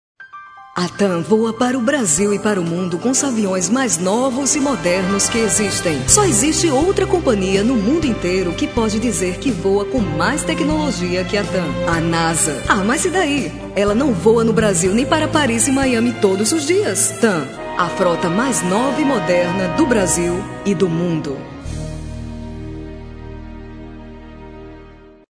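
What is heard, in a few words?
portuguese female voice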